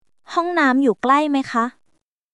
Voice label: Thai, basic thai phrases